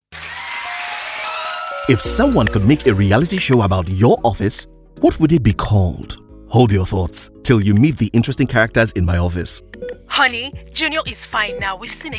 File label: RADIO COMMERCIAL